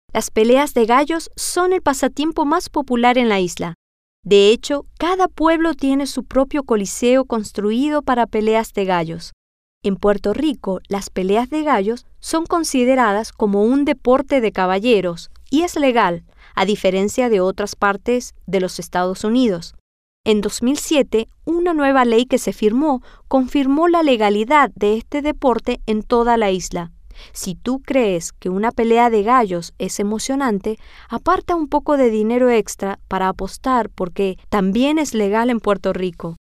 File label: Spanish; las Peleas De Gallos